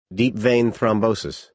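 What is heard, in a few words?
"deep vein thrombosis", American English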